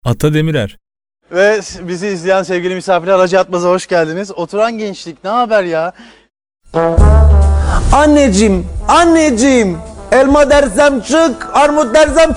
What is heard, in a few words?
diyalekt, Turkish Dialect Voices